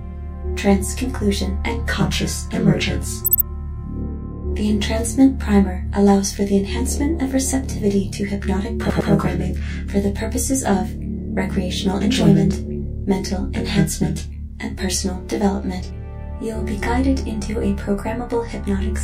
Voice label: Echo Intro Corrupted

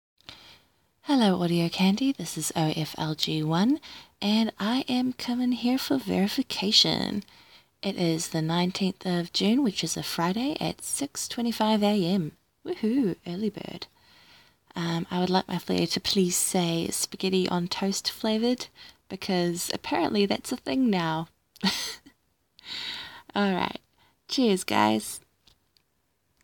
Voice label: F Verification

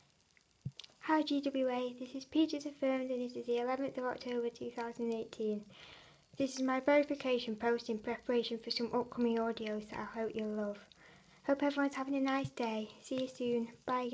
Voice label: F, Verification post

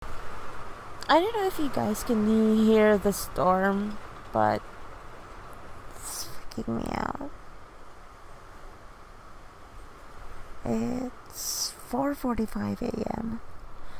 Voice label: Half awake, Stormy sounds

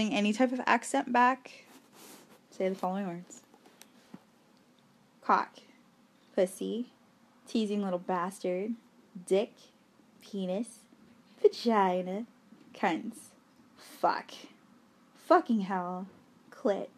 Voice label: Accents Challenge ['Murrica]; [you're welcome]

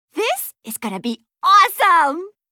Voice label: Intro Question Positive; Li Li; Heroes of the Storm; warcraft

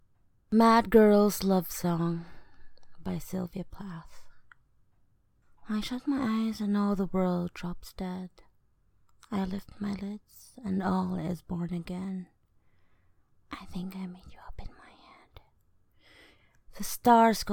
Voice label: F, Poetry; Mad Girl's Love Song; by Sylvia Plath

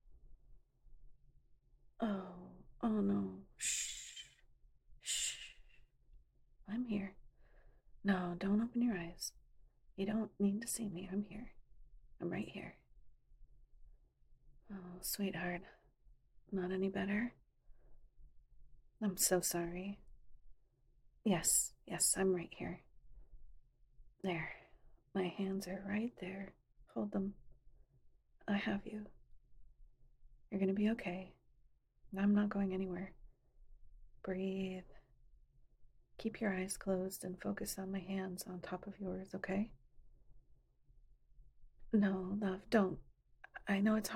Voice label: [F4A] [Head Massage][Whispe; Whispers; Breathing; Love; Sweetheart; Migraine; Pain Relief; Relaxation; Comfort; Little Smiles

